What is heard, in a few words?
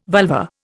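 "vulva", Indian English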